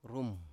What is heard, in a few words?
"rum", Biak